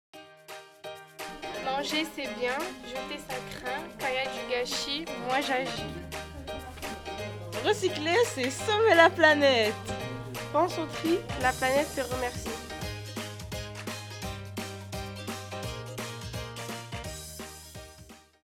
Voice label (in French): slogan preservons la nature; association natures pradettes; LP mirail